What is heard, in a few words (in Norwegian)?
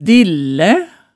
"dillæ", hemsedalsmaalet tale